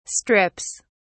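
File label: "strips", British English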